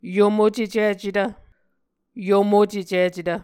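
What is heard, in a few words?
"yomocecicetv", Muscogee word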